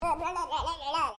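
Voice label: MALE 2 year old PLAYFUL; Talking Sound Effects; Talking (Sound Effects:Voice)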